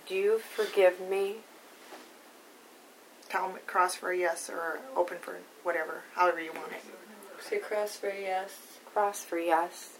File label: female voice; howell residential